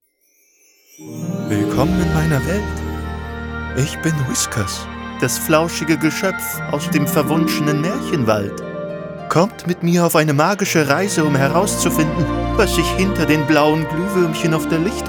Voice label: Act, Lip-Sync (Synchron), German; Synchron - Whiskers